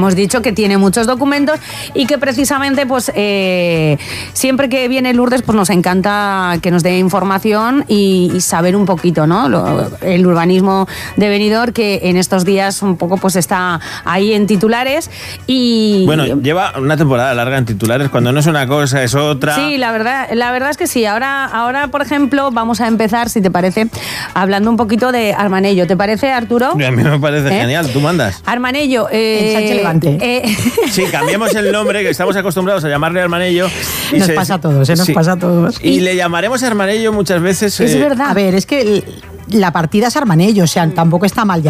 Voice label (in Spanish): entrevista radio; radio sirena COPE